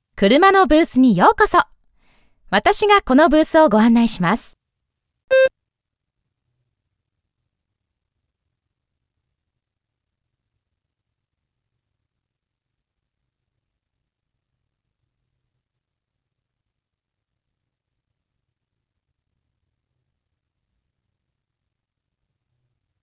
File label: japanese conversation